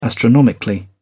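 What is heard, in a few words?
"astronomically", British English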